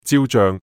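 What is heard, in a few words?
"椒醬", Cantonese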